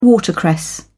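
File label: "watercress", British English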